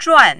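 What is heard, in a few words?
"zhuan4", mandarin, falling tone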